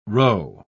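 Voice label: "rho", British English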